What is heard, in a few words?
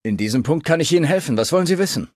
Male adult default dialogue